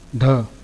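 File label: "Dha", consonants of the ĐevaNāgarī script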